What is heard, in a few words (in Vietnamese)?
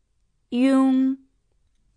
"iong", tieng trung; nguyên âm